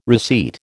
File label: "receipt", American